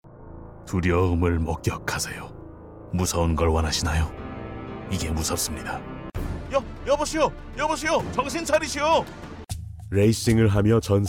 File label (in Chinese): 外籍韩语男-角色模仿